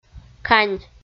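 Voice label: "кань", Komi, female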